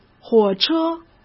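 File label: "火车", Mandarin Chinese; hsk; chinese flashcards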